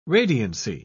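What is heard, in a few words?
"radiancy", British English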